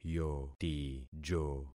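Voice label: "yotijo", concatenated syllables; Affixing experiment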